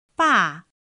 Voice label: "bà", chino mandarin; pinyin